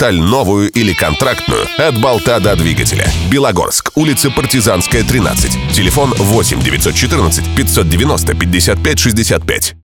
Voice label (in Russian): Японка Игровой; Белогорск